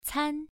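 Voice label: "can" Mandarin, first tone, pronunciation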